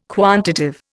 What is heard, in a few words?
"quantitive", Indian